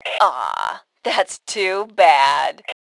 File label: Taunt Aww, Female; T2 Female 01